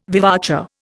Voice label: "vivace", Indian